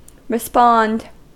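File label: "respond", US English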